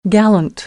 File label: "gallant", American; AmericanBritish